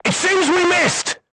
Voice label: voice message armor not pierce, vietnamese

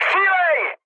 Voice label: attack position, speech cze, mood med

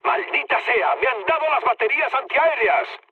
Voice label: damaged aa, mood med, speech; War Thunder

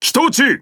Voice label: Jp Speech Pilots Torpedo Land; World Of Warships

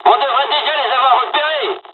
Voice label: dialog, dialogs french; War Thunder; midway

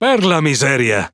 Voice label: Speech Quick Commands Caramba, IT; World Of Warships

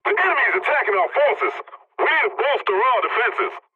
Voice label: pilot point under attack, english; War Thunder

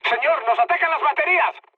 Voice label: damaged aa, mood high, speech; War Thunder

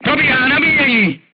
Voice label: voice message reloading, crew tanks czech

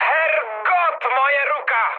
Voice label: damaged pilot, speech cze, mood high; War Thunder

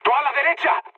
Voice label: right wing, mood high, speech; War Thunder

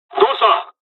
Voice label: dialog, dialogs japanese; us midway; War Thunder

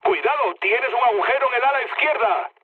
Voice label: left wing, mood low, speech; War Thunder

